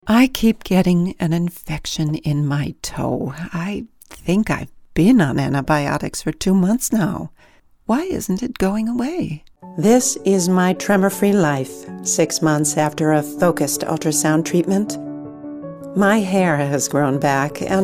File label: Senior